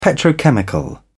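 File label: "petrochemical", English, British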